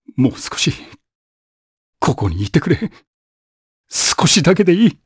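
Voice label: voice, Japanese; Baudouin; kingsraid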